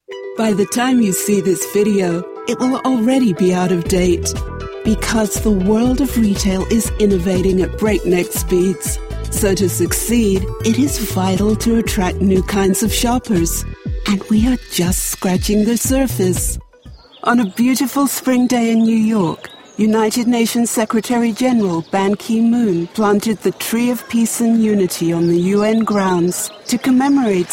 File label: Neutral Corp Narration